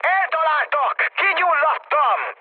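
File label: Hungarian on fire mood high, speech; War Thunder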